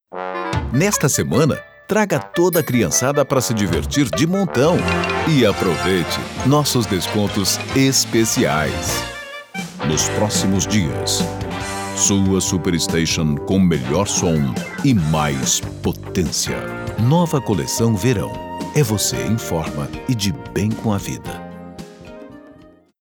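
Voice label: Brazilian commercial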